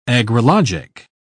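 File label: "agrologic", British English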